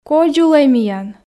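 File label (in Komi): "кодзулӧй миян", Komi, female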